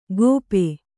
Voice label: "ಗೋಪೆ", Kannada